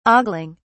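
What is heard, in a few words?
"ogling", British English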